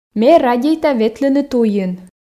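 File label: Komi, female; ме радейта ветлыны туйын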